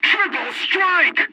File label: "triple kill" voiceover, english; streak; War Thunder; dialogs ui